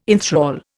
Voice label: "enthral", Indian